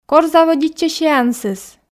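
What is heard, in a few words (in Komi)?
кор заводитчӧ сеансыс, Komi, female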